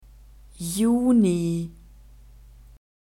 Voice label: "juni", German; masculine